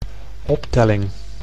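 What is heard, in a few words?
"optelling", Dutch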